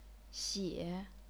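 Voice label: "xie", chinese pinyin, third tone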